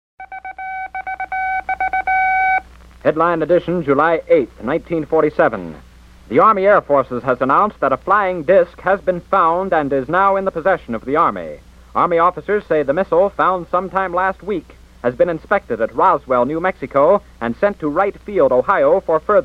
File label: Old Time Radio News; Abc News Ufo Crash In Roswell, NM; The Ultimate Art Bell Collection